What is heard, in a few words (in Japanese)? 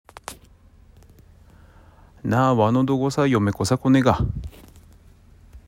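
津軽方言 例文, な、わの どごさ 嫁こさ こねが？; （あなたに私のお嫁さんになって欲しい）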